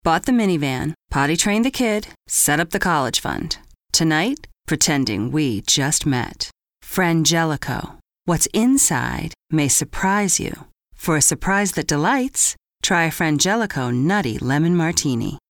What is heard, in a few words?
Spot